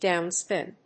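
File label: "downspin", English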